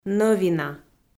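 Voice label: "нӧвина", Komi, female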